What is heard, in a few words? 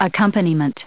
"accompaniment", us